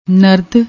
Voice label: "narad", Punjabi; ਨਰਦ

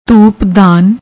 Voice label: "dhoopdan", Punjabi; ਧੂਪਦਾਨ

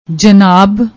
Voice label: "jannab", Punjabi

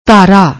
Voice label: "dhara", Punjabi; ਧਾਰਾ

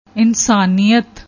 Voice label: "ensaniat", Punjabi; ਇਨਸਾਨੀਅਤ

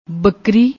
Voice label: "bakri", Punjabi

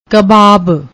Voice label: "kbab", Punjabi; ਕਬਾਬ

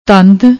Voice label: "tand", Punjabi; ਤੰਦ

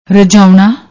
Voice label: "rajaouna", Punjabi

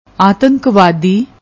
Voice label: "atankwadi", Punjabi; ਆਤੰਕਵਾਦੀ

